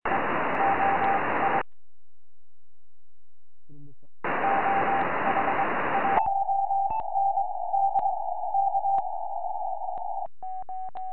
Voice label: 70cm, tropo